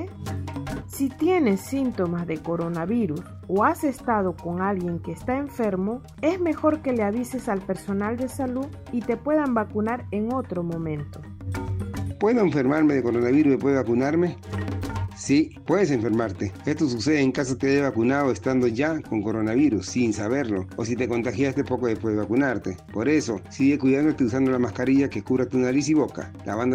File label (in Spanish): castellano localidades afroperuanas microprograma 2 preguntas y respuestas; vacuna coronavirus; vacuna contra el coronavirus